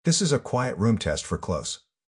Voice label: spoken room before